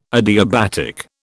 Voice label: "adiabatic", British